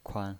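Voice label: "kuan", pinyin, Mandarin Chinese, neutral tone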